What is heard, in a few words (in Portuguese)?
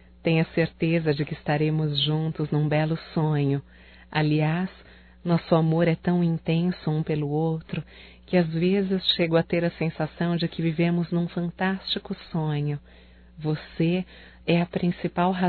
BOA NOITE ROMâNTICO, fem, Telemensagens; Bom dia, tarde e noite; Telemensagens 2017